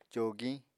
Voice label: "cogiñ", Apatani

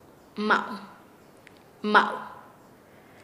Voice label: "como", Nasa Yuwe; paez

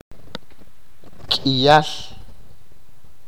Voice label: a lot, achi

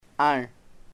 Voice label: ear, chamacoco

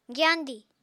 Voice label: "gyandi", Apatani

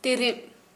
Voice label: "cloud", Kharia

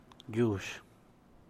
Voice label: "yush", San Mateo Macuilxochitl Zapotec, sand; arena

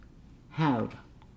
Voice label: "set on fire", Nukuoro

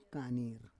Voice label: "kanir", Roon

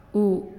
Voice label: "Swim", Naasioi

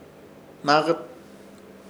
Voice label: "Hnaakɨt" arroz, Ishir Chamacoco

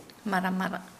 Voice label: "sawdust", Nukuoro

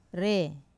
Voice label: "re", eye, Wamesa

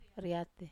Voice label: "riat", Wamesa

